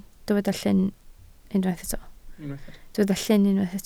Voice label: "llyn", Welsh

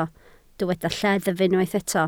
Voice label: "lleddf", Welsh

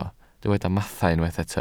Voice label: "mathau", Welsh

